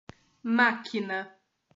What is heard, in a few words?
"máquina", portuguese